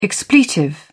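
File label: "expletive", English, British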